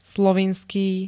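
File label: "slovinský", Slovak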